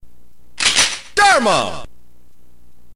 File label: narrator